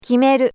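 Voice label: "kimeru", Japanese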